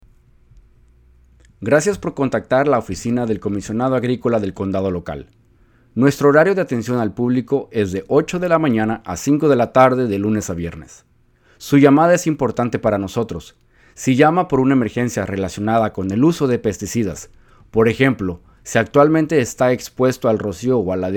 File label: voicemail option, spanish